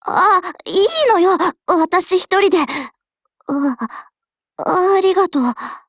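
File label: dialogue, Japanese; LWMMG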